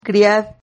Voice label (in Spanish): "griad", Silabas